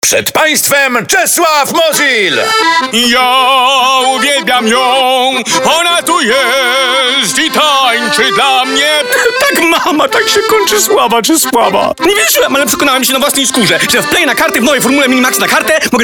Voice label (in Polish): radio